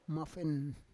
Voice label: "mafen", Roon